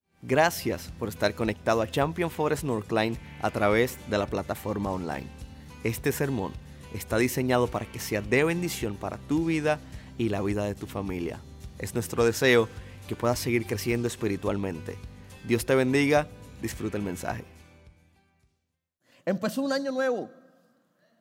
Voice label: Sermon Spanish

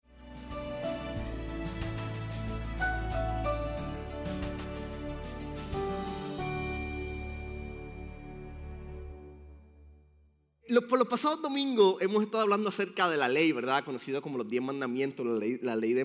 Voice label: Sermon Spanish

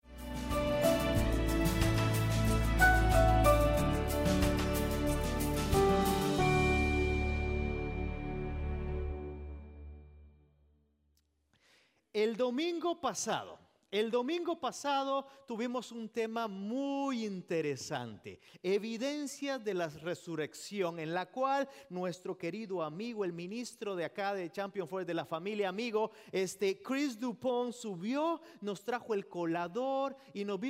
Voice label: Spanish Sermon

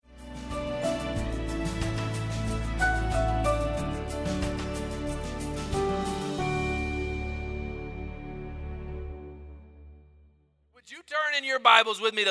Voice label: SERMON

